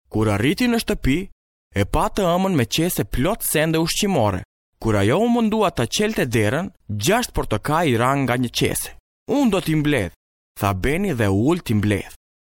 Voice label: albanian m commercial; voice demo